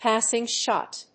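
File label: "passing shot", English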